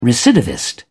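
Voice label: "recidivist", British English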